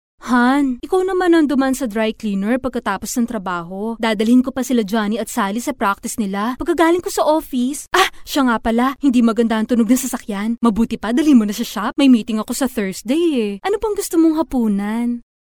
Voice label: Filipino, Filipino (Tagalog) voice overs, Female